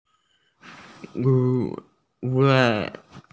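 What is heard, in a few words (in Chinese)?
"bo1 luo2" Chinese, female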